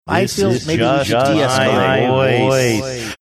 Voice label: saying this is just my voice echo reverb

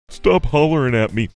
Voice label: saying stop hollering at me funny

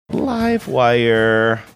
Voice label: sing songy manner

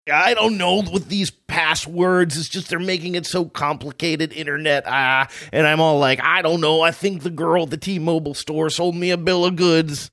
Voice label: mock ranting raving

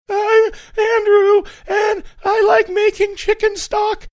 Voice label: cracked squeaky; saying i'm and i like making chicek stock

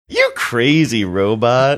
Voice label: saying you crazy funny manner

